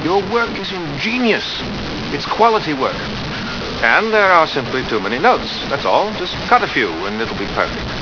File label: 4bit speech